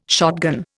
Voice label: "shotgun", Indian